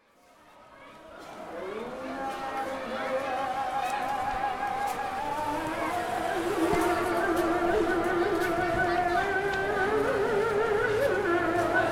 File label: DHAKA Market Call to Prayer